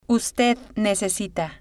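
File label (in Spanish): ud necesita, Spanish, female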